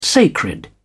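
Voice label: "sacred", British English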